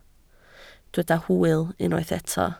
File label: "hwyl", Welsh